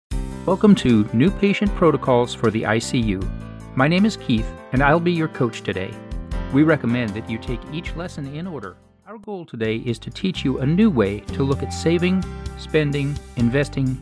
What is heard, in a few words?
eLearning Adult Corporate VO